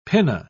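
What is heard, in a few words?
"pinna", British English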